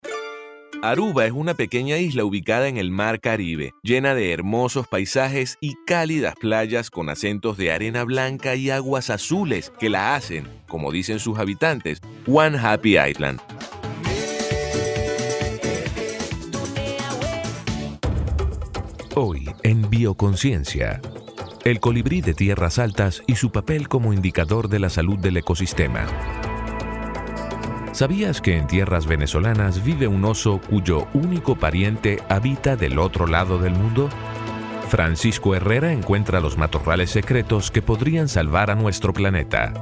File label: spanish venezuelan narration